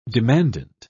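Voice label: "demandant", British English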